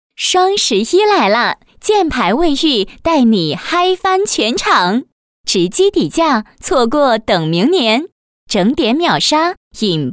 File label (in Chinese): 女, 广告; 双十一